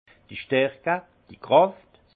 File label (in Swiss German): "starcke", alsace; intensité; vin